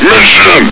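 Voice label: "mission!", male; hl2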